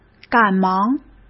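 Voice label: "赶忙", Mandarin Chinese; hsk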